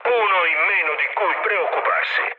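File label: Italian player killed enemy mood high, speech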